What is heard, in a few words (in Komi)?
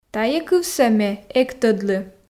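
"тайӧ кывсӧ ме эг тӧдлы", Komi, female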